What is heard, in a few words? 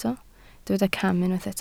"cam", Welsh